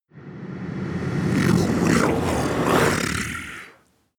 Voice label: demon dark voice reverse you will obey